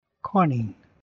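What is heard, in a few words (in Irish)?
"coinín", Irish; multiple choice questions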